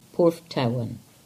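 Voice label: English; Porthtowan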